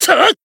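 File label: Voice attack, Japanese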